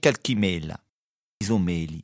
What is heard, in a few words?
"mela", Rocca (sud)